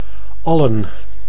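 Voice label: "allen", Dutch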